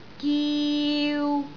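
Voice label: "giu3", Cantonese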